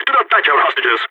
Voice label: prevent escape brag, balkan; Counter-Strike Global Offensive